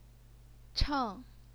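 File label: "cheng", fourth tone, Chinese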